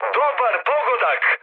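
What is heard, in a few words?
Serbian bomb success mood high, speech; War Thunder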